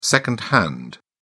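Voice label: "second hand", British English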